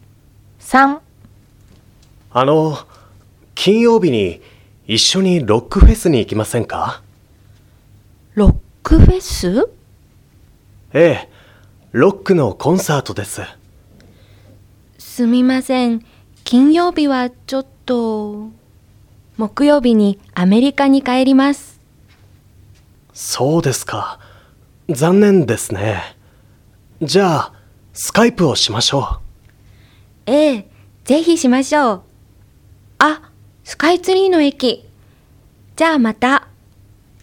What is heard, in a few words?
Conversation